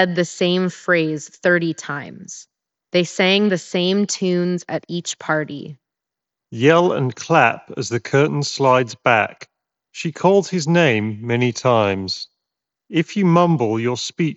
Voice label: reverb; HyperX Cloud III S Wireless